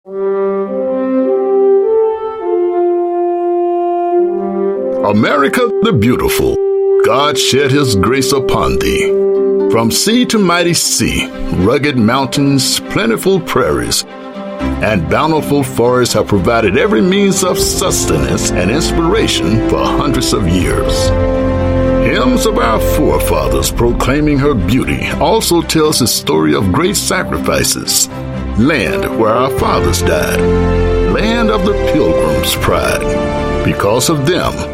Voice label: US English; American Valor